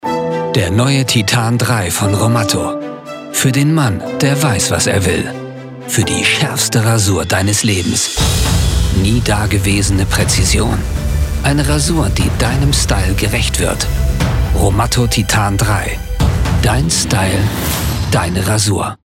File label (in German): Werbung Rasierer; Titan; LOFT VOICES